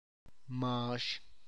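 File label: tlacochahuaya, Zapotec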